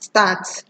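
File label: "start", Nigerian Pidgin, female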